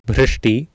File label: "bhrshti", Sanskrit